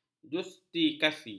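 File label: "justisi", Malay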